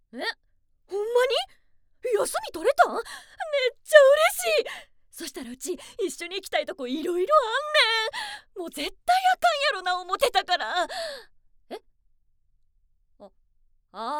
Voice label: 関西弁

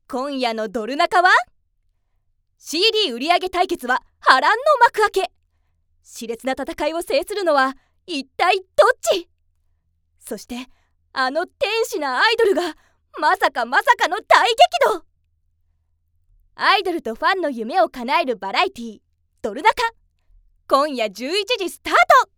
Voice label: バラエティー

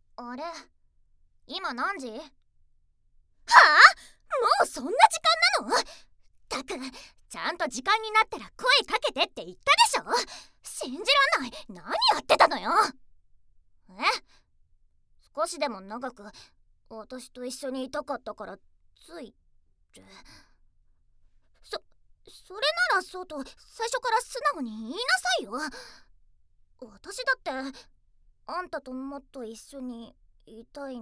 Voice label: ツンデレ

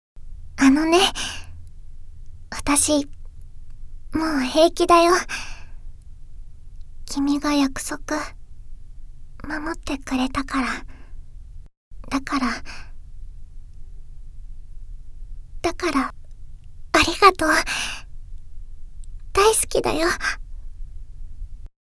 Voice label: 優しい少女

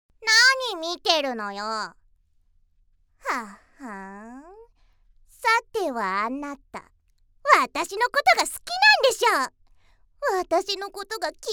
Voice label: 生意気

